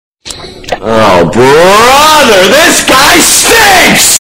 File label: this guy stinkssss. loud.